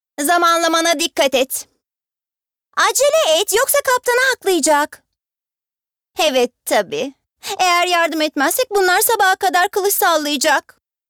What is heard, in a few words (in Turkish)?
Animasyon